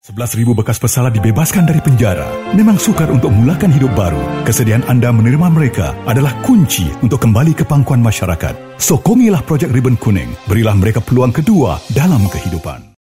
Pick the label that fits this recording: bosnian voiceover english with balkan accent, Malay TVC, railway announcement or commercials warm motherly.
Malay TVC